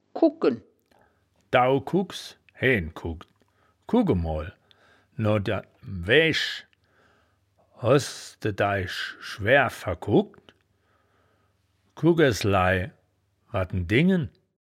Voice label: "kugken", Wittlicher; das wittlicher woerterbuch; woerterbuch vertonung

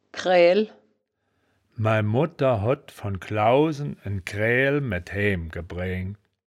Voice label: "kraeael", wittlich

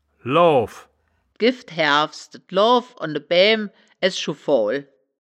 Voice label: "loow", wittlich

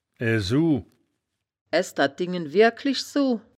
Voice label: "esu", wittlicher

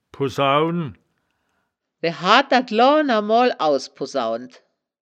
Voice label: "pusaunen", wittlich

